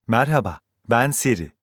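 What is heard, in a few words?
Turkish; iOS 26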